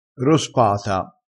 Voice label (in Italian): "rospata", crespinese